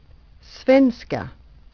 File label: SWEDISH